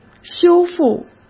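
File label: "修复", Mandarin Chinese; chinese-flashcards; hsk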